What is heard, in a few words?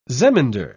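"zemindar", British English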